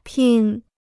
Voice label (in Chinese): "拼", Chinese